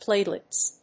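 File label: "Platelets", English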